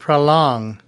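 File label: "prolong", US English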